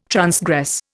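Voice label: "transgress", Indian English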